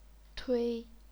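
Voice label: "tui", chinese pinyin, first tone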